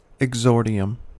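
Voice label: "exordium", American English; pronunciations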